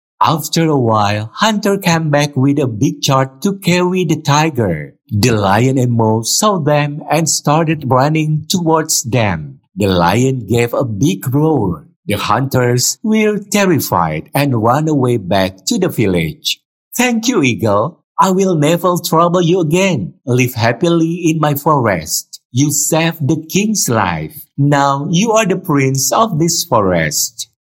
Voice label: KARAKTER ENGLISH